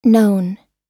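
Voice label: "known", US English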